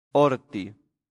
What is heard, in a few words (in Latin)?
"horti", latin; santillana